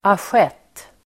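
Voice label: "assiett", Swedish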